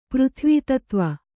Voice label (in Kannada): "ಪೃಥ್ವಿತತ್ವ", Kannada